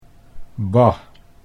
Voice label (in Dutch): "bah", Dutch